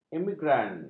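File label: "emigran", Malay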